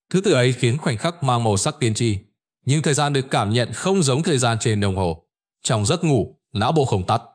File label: narration; Vi Sao Nao Bo Danh Thuc Ban Truoc Nguy Hiem; StickerMan